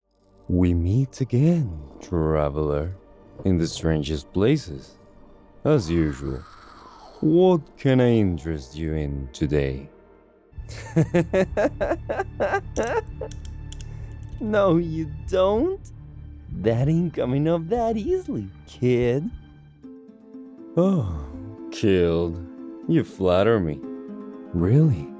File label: character sample